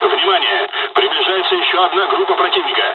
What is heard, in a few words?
dialogs russian; War Thunder